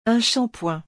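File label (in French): "un shampoing", French; vocabulaire; le lexique des vacances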